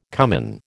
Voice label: "cummin", American